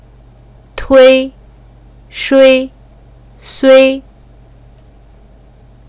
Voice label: dui tui shui, pinyin